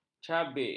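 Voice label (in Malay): "cabik", Malay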